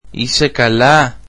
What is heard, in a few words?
greek Are you okay